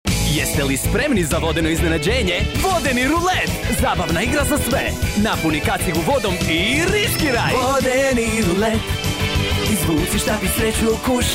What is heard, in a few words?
Playful, croatian